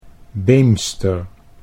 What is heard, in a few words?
"Beemster", Dutch